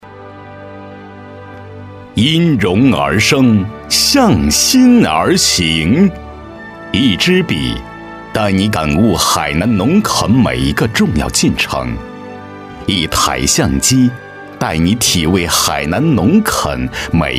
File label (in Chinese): 男 大音 宣传; 海南农垦